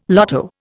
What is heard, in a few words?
"lotto", Indian